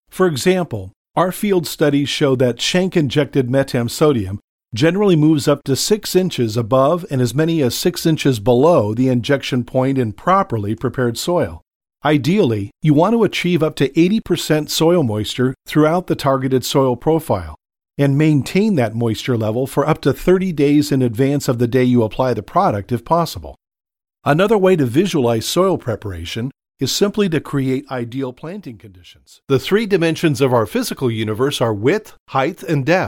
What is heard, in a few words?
eLearning Male